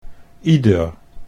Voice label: "ieder", Dutch